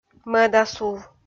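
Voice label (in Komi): "мӧдасув", Komi, female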